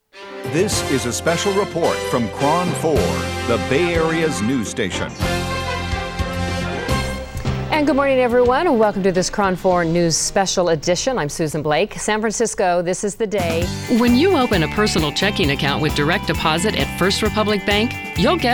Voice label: Voice Over